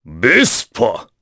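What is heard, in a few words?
voice, Japanese; Kaulah; Vespa; kingsraid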